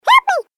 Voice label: Cartoon Game Voice "Help Me"; Cartoon Voice Sound Effects